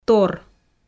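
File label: "тор", Komi, female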